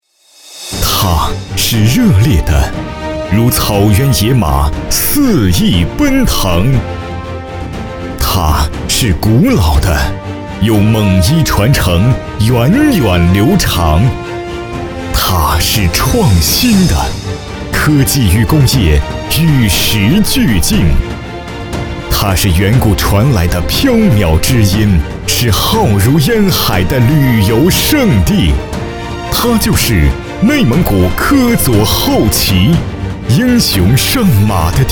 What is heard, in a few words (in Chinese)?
专题 政府 大气, 男; 科左后旗